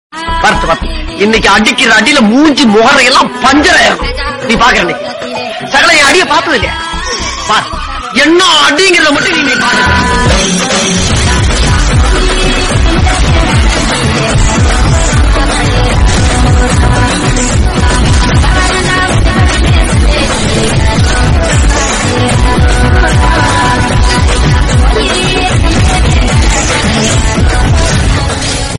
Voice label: comedy remix; vadivelu

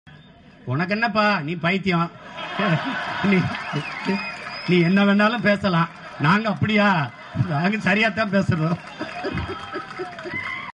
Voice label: tamil funny dialogue; unakkennapaa nee paithiyam

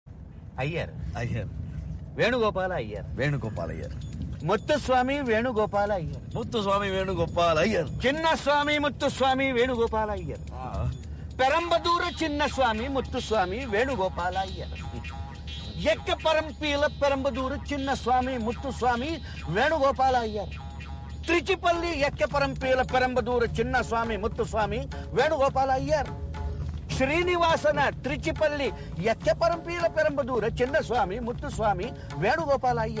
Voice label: dialogue, tamil; Don movie

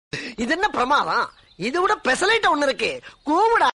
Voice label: dialogue comedy; vadivelu

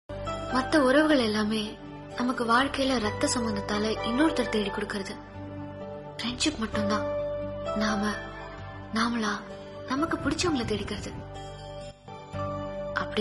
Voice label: friendship dialogue, telugu movie; husharu

